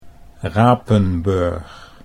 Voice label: "Rapenburg", Dutch